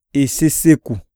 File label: hiccough, iyasa